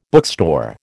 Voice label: "bookstore", American